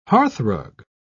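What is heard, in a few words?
"hearthrug", British English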